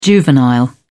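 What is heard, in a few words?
"juvenile", British English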